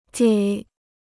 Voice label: "给", Chinese